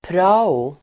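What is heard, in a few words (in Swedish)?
"prao", Swedish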